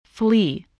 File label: "flee", spelling